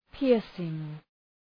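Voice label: "piercing", English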